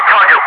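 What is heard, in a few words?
"target", English, Wingman; DCS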